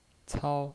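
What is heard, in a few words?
"cao", Mandarin Chinese, first tone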